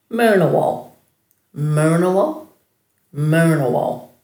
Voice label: "murnawal", Dhurga

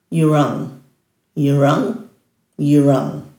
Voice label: "yurang", Dhurga; dulagaal

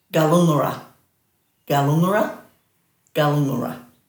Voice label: "galungara", Dhurga

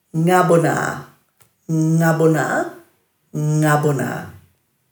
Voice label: "ngabunaa", Dhurga

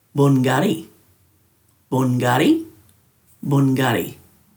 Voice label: "bun.garri", Dhurga; hill

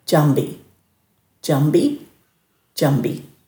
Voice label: "djambi", Dhurga

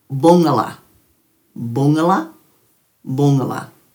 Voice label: "bungala", Dhurga

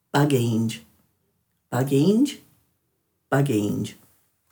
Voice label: "bagiindj", Dhurga

